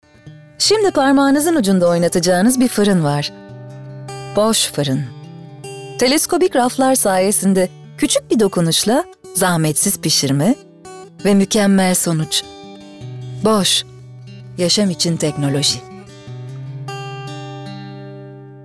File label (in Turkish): REKLAM